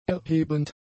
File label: "erhebend", German; adjectives